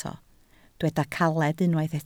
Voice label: "caled", Welsh

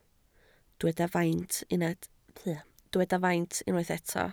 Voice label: "faint", Welsh

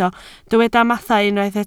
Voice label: "mathau", Welsh

